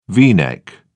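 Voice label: "v neck", British English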